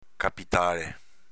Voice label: "capitale", dialetto veneto; s.m. proprietà, beni